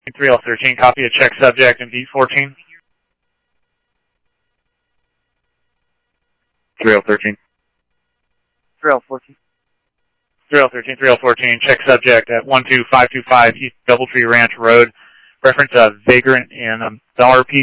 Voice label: Scottsdale Police, scanner audio